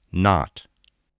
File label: "knot", English